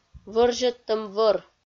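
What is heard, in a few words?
"вӧрзьӧдтӧм вӧр", Komi, female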